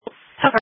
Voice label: Sheriff Dispatch